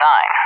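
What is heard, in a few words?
"9", English, USA; Digits; DCS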